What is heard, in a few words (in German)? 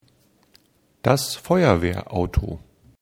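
"feuerwehrauto", German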